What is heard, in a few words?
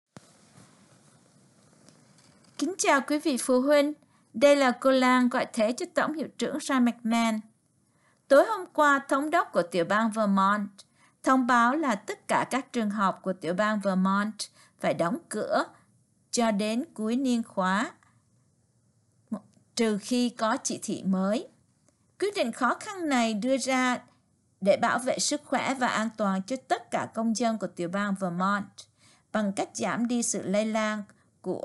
vietnamese